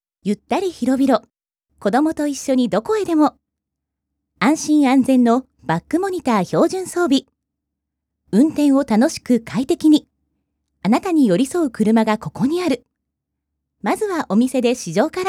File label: tvcm narration